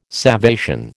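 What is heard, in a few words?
"salvation", American English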